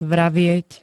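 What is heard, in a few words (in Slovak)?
"vravieť", Slovak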